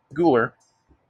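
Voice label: "gular" US English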